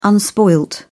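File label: "unspoiled", British English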